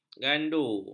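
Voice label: "gandu", Malay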